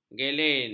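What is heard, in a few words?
"geleng", Malay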